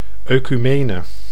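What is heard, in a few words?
"oecumene", Dutch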